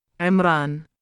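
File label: "3imraan" male, Arabic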